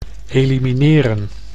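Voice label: "elimineren", Dutch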